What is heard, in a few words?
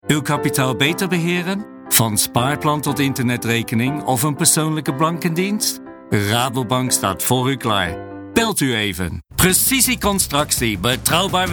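Dutch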